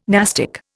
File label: "nastic", Indian